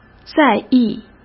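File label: "在意", Mandarin Chinese; chinese-flashcards; hsk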